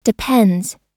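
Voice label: "depends", US English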